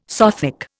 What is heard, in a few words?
"Sothic", Indian